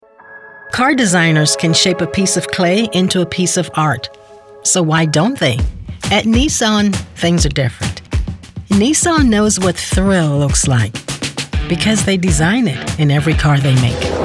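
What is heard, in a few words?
commercial, Voiceover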